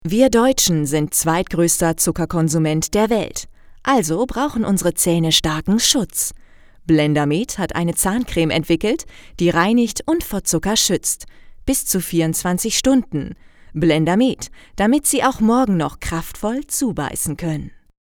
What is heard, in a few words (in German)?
werbespot; professionelle Sprecherin; Commercial - Blendamed